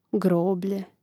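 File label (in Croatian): "groblje", hrvatski; mreznik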